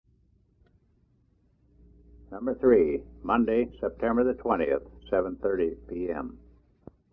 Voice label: Announcement; The Nature of the Church Series; Beacon Heights; Lecture 3 - Christ in History